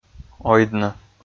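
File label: "ойдны", Komi, male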